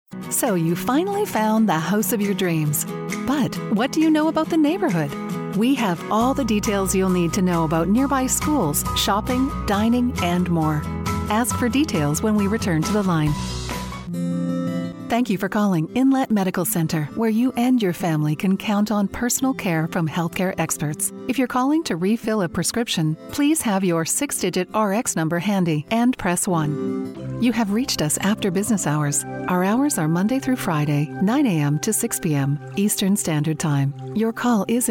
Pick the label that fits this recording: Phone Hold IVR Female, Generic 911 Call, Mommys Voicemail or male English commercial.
Phone Hold IVR Female